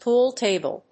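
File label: "pool table", English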